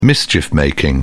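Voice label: "mischief making", British English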